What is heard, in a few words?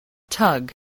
"tug", spelling